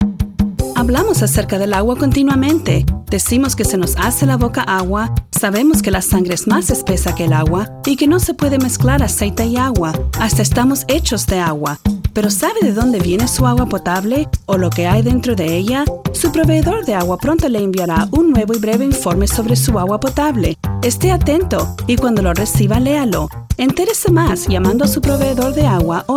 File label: spanish water sayings